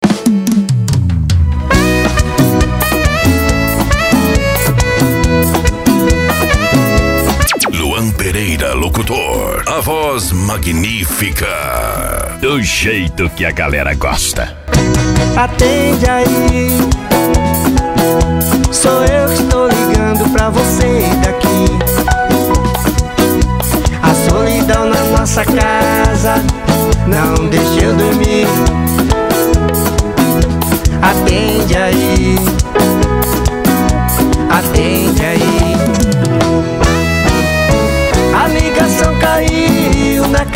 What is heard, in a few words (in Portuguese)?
locutor a voz magnifica